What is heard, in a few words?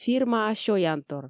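"фирмаа сёянтор" Komi, female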